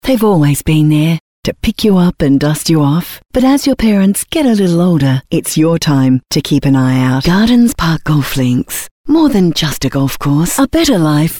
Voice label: Soft Sell